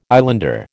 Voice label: "islander", American